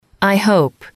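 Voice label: i hope, English, female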